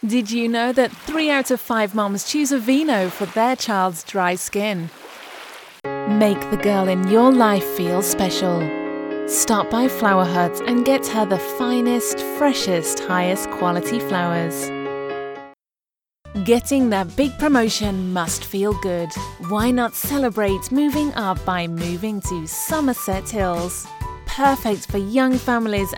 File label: soft tones